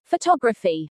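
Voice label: "photography", English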